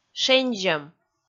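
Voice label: "шензьӧм", Komi, female; kpv audio dict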